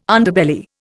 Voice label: "Underbelly", Indian